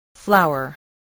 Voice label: "flour", spelling